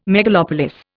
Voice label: "megalopolis", Indian